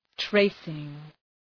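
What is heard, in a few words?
"tracing", English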